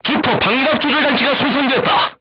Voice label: voice message horizontal aiming drive damaged, korean, crew tanks